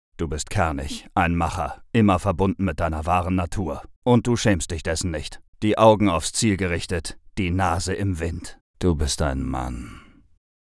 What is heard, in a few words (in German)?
Werbung